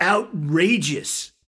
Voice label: vo "Outrageous"; netent; wildwater